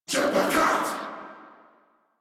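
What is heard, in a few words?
Reload, English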